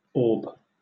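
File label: "orb", British English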